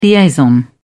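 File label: "liaison", British English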